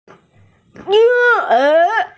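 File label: "baby", Mandarin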